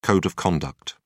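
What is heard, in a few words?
"code of conduct", English, British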